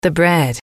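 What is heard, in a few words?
"the bread", English, female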